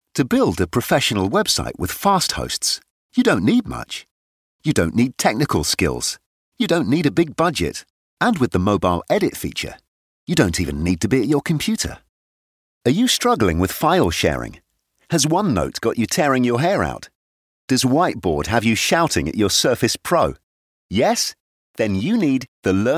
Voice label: corporate